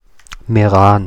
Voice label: Meran Standard

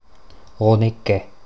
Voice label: Rodeneck Mundart

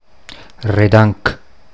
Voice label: "Redanch" Mundart

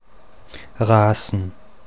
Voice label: Rasen Mundart

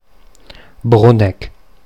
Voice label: Bruneck Standard

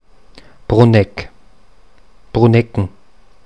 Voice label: Bruneck Mundart

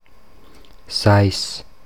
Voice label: "Seis" Mundart

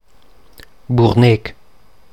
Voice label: Burnech Mundart

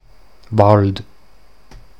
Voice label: Unsere liebe Frau im Walde Mundart

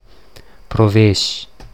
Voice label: Proves Mundart

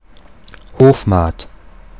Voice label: "Hofmahd" Standard

